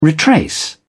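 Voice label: "retrace", British English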